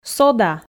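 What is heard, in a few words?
"сода" Komi, female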